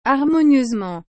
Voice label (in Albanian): "harmonieusement", frengjisht